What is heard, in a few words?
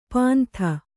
"ಪಾಂಥ", kannada